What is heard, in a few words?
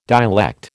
"dialect", American